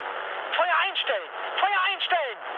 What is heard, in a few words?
player friendly fire, mood med, speech ge